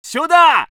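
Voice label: voice soviet infantry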